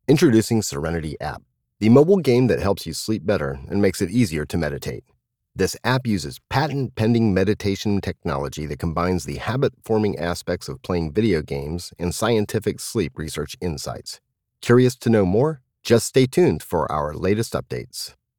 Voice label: Explainer Video; clear, compelling voiceovers